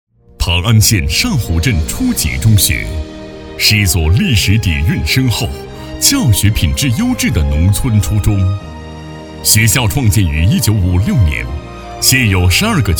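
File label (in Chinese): 学校宣传大气浑厚男; 磐安中学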